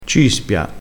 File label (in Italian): "cispia", crespinese